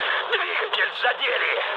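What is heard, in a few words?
Russian damaged engine mood med, speech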